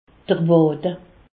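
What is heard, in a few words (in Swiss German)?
"wade", Haut Rhin; medecine; mollet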